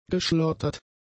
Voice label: "geschlottert", German; adjectives